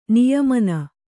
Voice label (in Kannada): "ನಿಯಮನ", Kannada